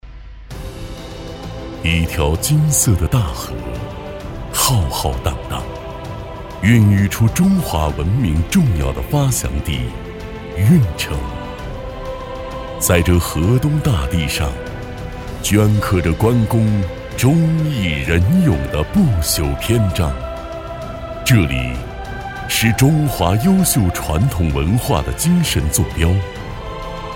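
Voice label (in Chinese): 男【宣传片】景区; 关公故里景区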